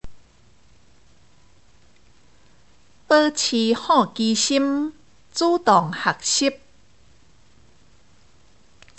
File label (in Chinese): (閩); 生活常規